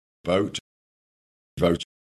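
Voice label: dungeness bbc voices male goat, kent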